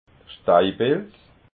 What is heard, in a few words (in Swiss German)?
"steipilz", alsace